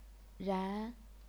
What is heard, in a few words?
"ran2", chinese pinyin